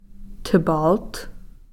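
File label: "snail", Takelma word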